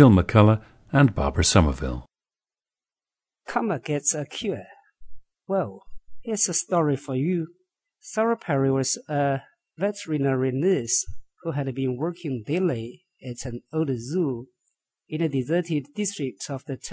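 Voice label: henan, International Dialects of English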